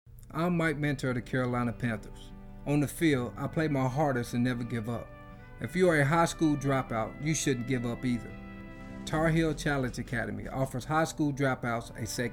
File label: radio